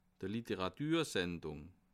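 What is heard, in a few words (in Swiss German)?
"literatursendung", Bas Rhin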